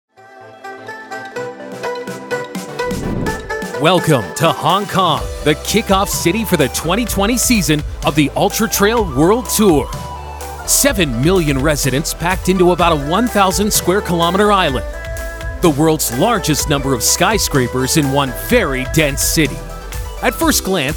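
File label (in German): Dokumentarfilm Erzahler Jugendfrei, English, male; NATIVE PROFISPRECHER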